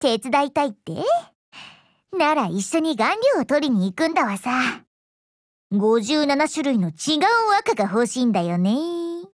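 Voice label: DIALOGUE, Japanese; puzzle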